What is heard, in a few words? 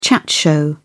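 "chat show", British English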